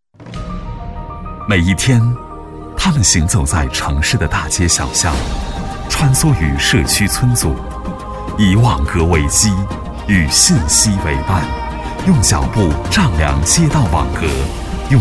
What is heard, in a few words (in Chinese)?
男, 宣传片配音, 年轻高端; 夏镇街道网格员宣传片; 高端大气 大气浑厚